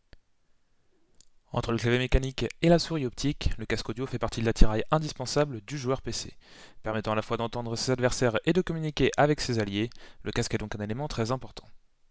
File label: epos h6pro soundtest